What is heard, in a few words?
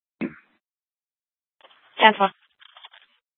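police dispatch, Scottsdale PD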